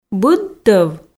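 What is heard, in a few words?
"быд тӧв", Komi, female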